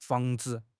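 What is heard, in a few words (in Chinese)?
"防治", Chinese; HSK6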